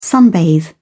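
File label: "sunbathe", British English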